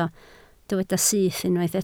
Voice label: "syth", Welsh